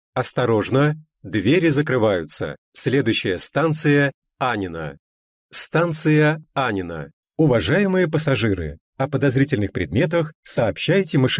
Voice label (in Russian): diktor v moskovskom metro; serpuhovsko-timiryazevskaya liniya